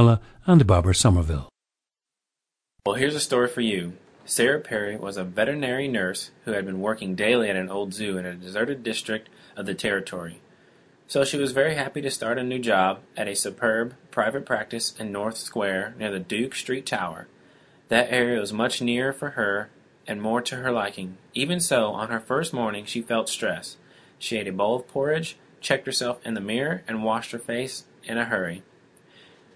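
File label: indiana, International Dialects of English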